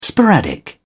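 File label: "sporadic", British English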